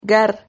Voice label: "gar", Silabas

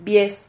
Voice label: "vies", Silabas

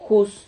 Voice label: "juz", Silabas

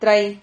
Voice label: "trahi", Silabas

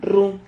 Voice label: "rru", Silabas

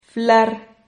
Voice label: "flar", Silabas

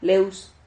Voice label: "leus", Silabas

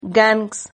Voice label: "gangs", Silabas

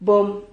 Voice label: "bom", Silabas

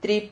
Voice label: "trip", Silabas

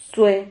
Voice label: "zue", Silabas

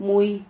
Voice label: "muy", Silabas

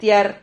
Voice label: "tiar", Silabas

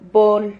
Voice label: "vol", Silabas

